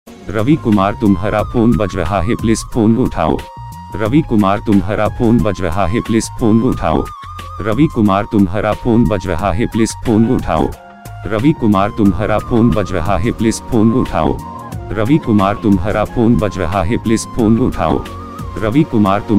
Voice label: Tumhara Phone Baj Raha Hai Please Phone Uthao Saying, ringtone